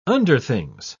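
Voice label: "underthings", British English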